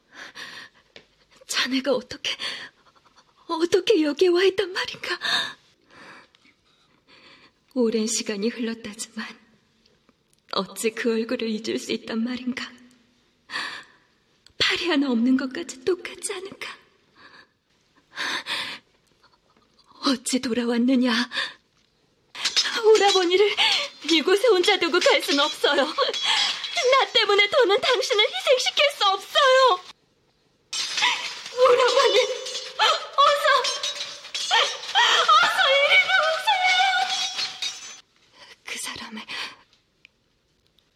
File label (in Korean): 30대 차분한 세련된; 기황후